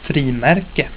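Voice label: "frimärke", swedish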